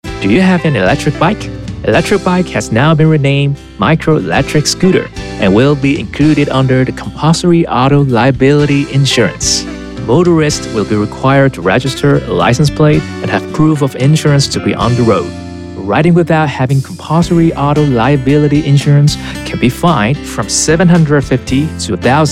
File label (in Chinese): 微型電動二輪車篇(英語); 11月30日起，微型電動二輪車新車須投保強制險才能上路，現行已領有合格標章行駛上路的微型電動二輪車，應該在施行後2年內投保強制險。